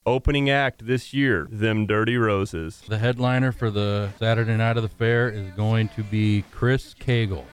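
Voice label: Announcement Actuality; Humboldt County Fair Board announces concert for Jul. 27; Humboldt, IA; 97.7 The Bolt; 2024 Humboldt County Fair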